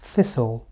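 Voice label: "thistle", English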